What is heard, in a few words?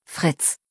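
"Fritz", German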